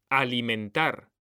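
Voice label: "alimentar", Spanish, male; mekanta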